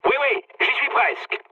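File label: near waypoint, mood medium, French; War Thunder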